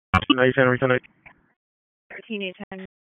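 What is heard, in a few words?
Sheriff's Office Dispatch 1, p25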